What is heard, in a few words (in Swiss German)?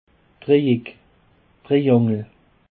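"dreieck", Alsatian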